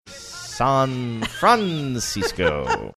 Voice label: san francisco funny